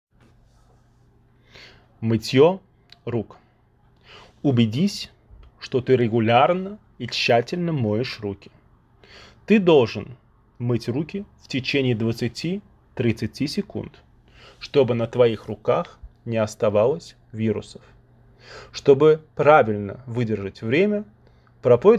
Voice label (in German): Russisch